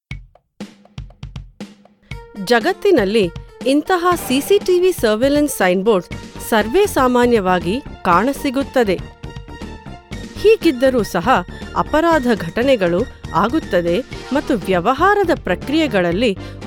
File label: corporate kannada; comsur